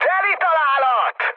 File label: bomb success, mood high, speech hun; War Thunder